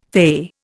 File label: "fay", Indian